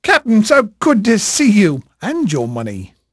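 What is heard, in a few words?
English male, voice; Pirates Of The Caribbean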